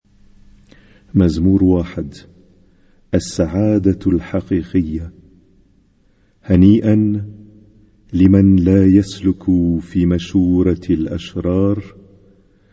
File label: Arabic Psalm Zaboor